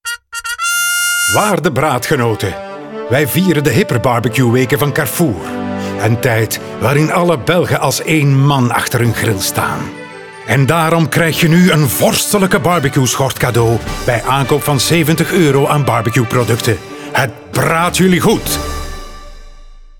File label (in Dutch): Instore, nl; Carrefour